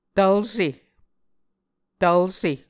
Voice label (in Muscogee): "Tvlse", Muscogee